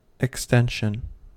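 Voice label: "extension", American English; pronunciations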